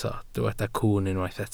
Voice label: "cwwn", Welsh

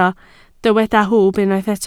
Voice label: "hwb", Welsh

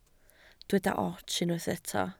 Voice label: "ots", Welsh

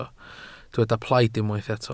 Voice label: "plaid", Welsh

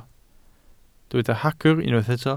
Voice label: "hagr", Welsh

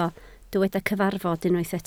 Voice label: "cyfarfod", Welsh

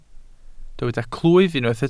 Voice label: "clwyf", Welsh

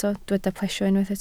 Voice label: "plesio", Welsh

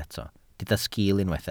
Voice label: "sgiil", Welsh

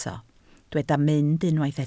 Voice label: "mynd", Welsh

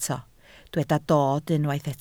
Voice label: "dod", Welsh

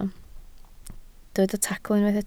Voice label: "tacl", Welsh

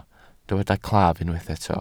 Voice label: "claf", welsh

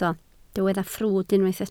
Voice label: "ffrwd", Welsh

